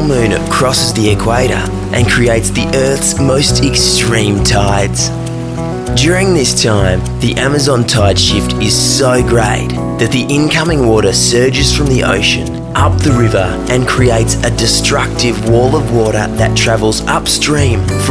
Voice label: Surf Video Narration